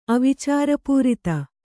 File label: "ಅವಿಚಾರಪೂರಿತ", Kannada; avicārapūrita; contrary to reason; not logical or reasonable